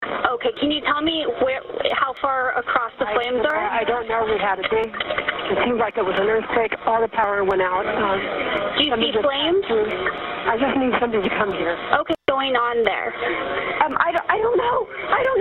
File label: quake 911 call; bay area napa